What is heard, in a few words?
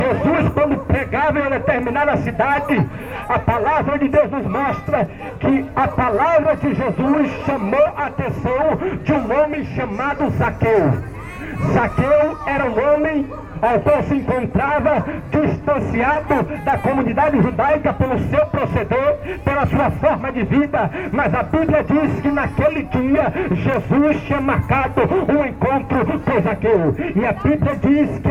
Brasilian Street Preacher